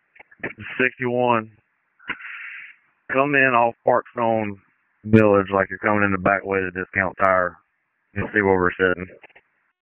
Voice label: PS TAC, p25

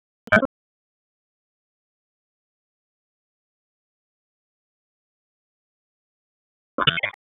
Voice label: Raleigh Police Northeast District Tac, p25

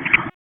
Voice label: Police Tac; Raleigh; Northwest District Tac 1; wake; p25

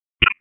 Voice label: EMS ALERT, p25

